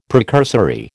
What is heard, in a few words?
"precursory", American